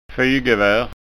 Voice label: "fygevar", skanska ord

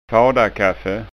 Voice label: "fadakaffe", skanska ord

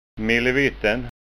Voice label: "millevitten", skånska

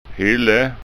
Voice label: "hylle", skanska ord

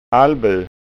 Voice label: "allbu", skanska ord

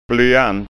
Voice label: "blyant", skanska ord

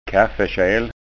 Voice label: "kaffekjel", skanska

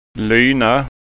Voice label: "lyna", skanskaord

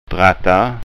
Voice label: "dratta", skanska

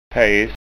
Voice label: "pejs", skanska ord

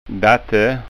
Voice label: "datte", skanska

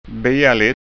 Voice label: "bialitt", skanska ord

